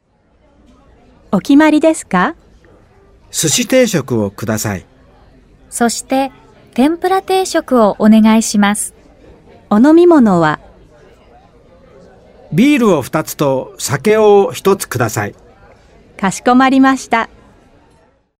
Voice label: Japanese